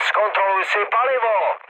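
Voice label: tank damaged, Czech, mood low; War Thunder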